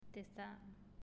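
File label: "Testa", Italian, female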